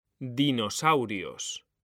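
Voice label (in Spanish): "dinosaurios", Spanish; mekanta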